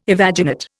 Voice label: "evaginate", Indian